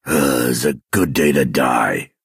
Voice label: male concede

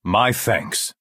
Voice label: Breton male thank you

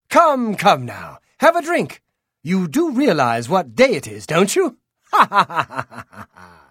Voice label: Holiday Greeting High Elf Male; Unused

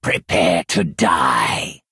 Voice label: Argonian male taunt

